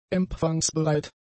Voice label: "empfangsbereit", german; adjectives

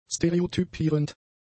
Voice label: "stereotypierend", German; adjectives

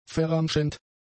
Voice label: "verramschend", german; adjectives

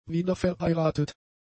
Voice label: "wiederverheiratet", German; adjectives

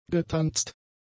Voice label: "getanzt", german; adjectives

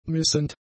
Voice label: "missend", German; adjectives

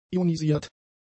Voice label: "ionisiert", german; adjectives

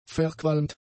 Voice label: "verqualmt", german; adjectives

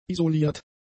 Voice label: "isoliert", german; adjectives

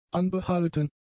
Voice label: "anbehalten", German; adjectives

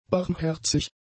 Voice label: "barmherzig", German; adjectives

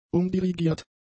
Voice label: "umdirigiert", German; adjectives

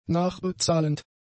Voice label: "nachbezahlend", German; adjectives

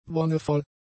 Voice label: "wonnevoll", German; adjectives

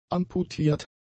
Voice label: "amputiert", German; adjectives

